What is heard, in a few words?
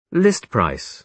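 "list price", British English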